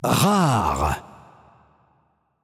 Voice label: Announcer French Lobby Card Rare